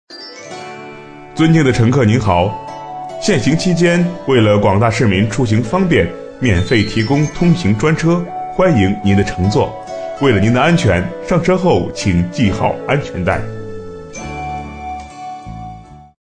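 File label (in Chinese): 男广播 公交车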